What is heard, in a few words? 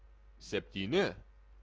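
"septini", Lithuanian, male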